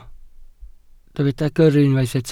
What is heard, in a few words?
"gyrru", Welsh